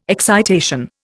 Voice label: "excitation", Indian

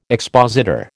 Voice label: "expositor", American

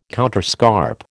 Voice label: "counterscarp", American

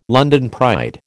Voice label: "London pride", American

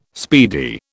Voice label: "speedy", British

